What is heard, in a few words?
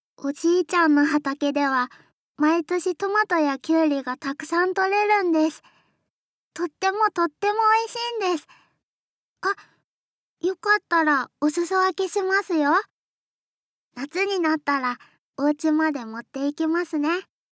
Young girl